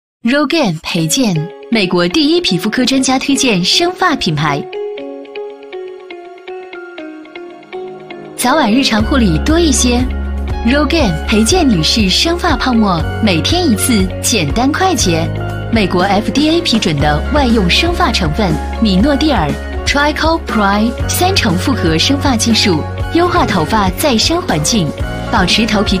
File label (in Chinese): 女 品牌广告 生发泡沫 御姐高级; 高端大气